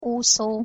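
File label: "uszo", Hungarian pronunciation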